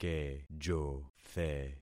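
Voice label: "kejothe", concatenated syllables; Affixing experiment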